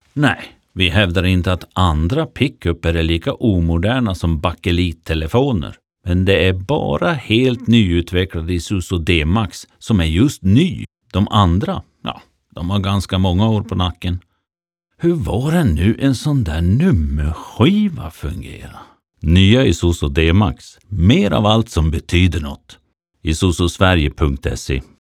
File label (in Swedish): radiospot bakelittelefon; isuzu d-max